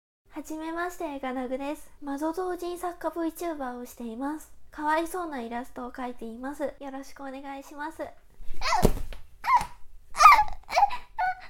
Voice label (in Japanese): 自己紹介サンプル音声のみ